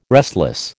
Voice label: "restless", American

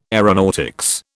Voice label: "aeronautics", British

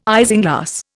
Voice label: "isinglass", Indian

